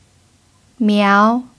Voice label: "miao2", chinese pinyin

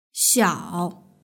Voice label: "xiao", Chinese; hsk1

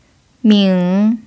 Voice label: "ming3", chinese pinyin

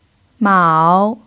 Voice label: "mao3", chinese pinyin

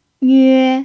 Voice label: "nve1", chinese pinyin

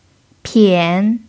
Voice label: "pian3", chinese pinyin

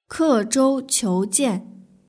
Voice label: "kezhouqiujian", Chinese

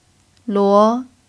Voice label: "luo2", chinese pinyin

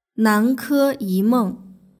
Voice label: "nankeyimeng" Chinese

